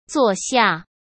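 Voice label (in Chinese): "坐下", Mandarin Chinese; hsk; chinese-flashcards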